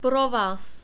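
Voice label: "provaz", czech, male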